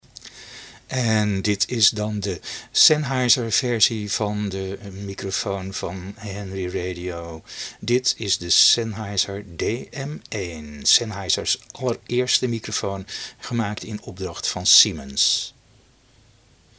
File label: Sennheiser DM1 NL